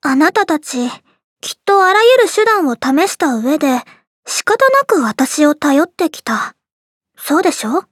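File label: start, Japanese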